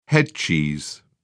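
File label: "headcheese", British English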